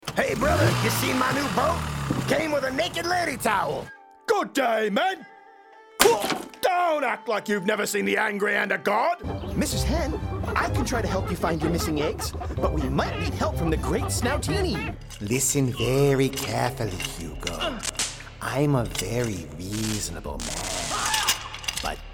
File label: Animation, Voice Over Artist